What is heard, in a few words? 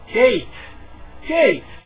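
"gate", English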